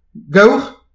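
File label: "gavr", Breton; petit precis de prononciation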